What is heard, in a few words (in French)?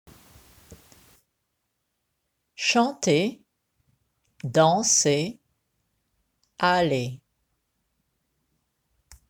"chanter", French